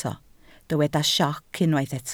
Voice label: "sioc" Welsh

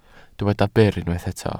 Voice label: "byr", Welsh

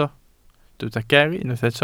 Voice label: "ger" Welsh

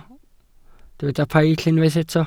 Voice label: "paill", Welsh

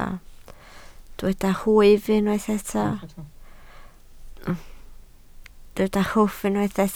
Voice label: "hwff", Welsh

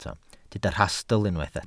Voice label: "rhastl" Welsh

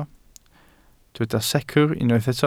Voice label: "secr" Welsh

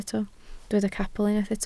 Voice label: "capel" Welsh

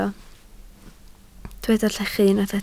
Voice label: "llechu", Welsh

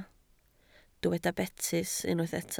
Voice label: "betys", Welsh

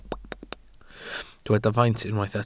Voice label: "faint", Welsh

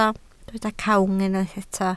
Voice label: "cawg", Welsh

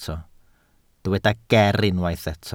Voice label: "ger" Welsh

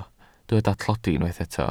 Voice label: "tlodi", Welsh

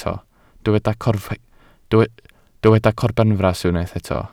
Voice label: "corbenfras" Welsh

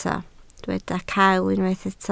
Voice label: "cael", Welsh

